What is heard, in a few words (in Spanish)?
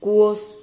"cuos", Silabas